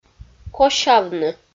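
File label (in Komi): "косявны", Komi-Zyrian, female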